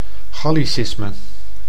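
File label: "gallicisme", Dutch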